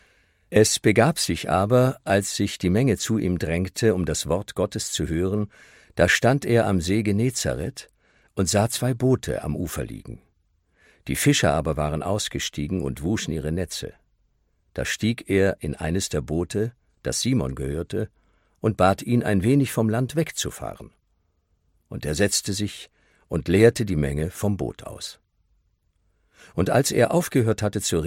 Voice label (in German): Lesung; lukas 5 1-11; Der Fischzug des Petrus; patmos-gemeinde; Lesung des Evangeliums